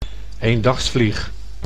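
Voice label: "eendagsvlieg", Dutch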